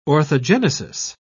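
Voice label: "orthogenesis", British English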